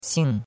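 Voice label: "xing4", Mandarin Chinese; Cours de Chinois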